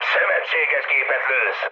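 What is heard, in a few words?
player friendly fire, mood med, Hungarian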